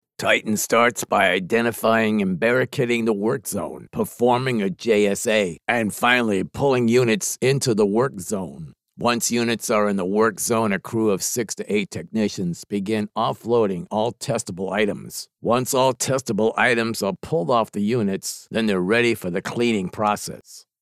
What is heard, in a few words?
construction voice rough talking to crew